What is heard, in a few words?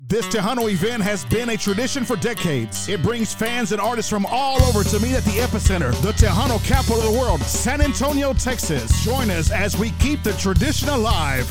spot; TMA FanFair